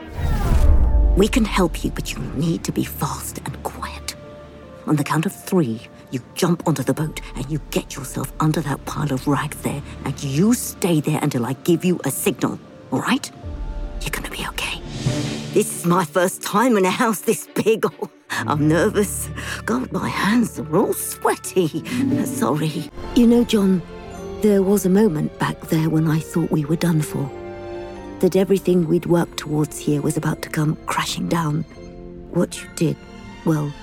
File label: Animation, 40s-60s. Female. British Asian/RP.; Computer Games